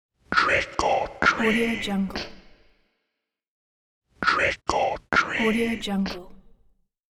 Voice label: scary voice saying trick or treat